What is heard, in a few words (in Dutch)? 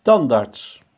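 "tandarts", Dutch; healthcare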